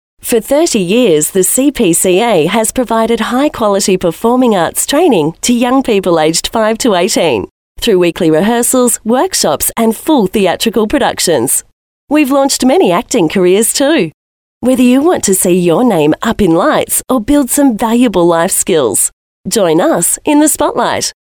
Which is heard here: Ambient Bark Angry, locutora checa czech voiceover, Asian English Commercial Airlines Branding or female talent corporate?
female talent corporate